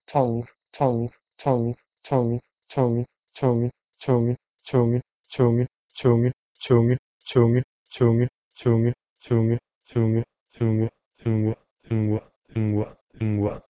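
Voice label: North West Midlands tongue from Proto-Indo-European dngweh2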